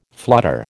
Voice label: "flutter", American